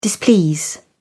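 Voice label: "displease", British English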